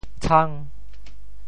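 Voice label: "tshang5", Teochew; 潮州; 田鳝; 黄鳝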